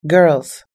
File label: "girls", US English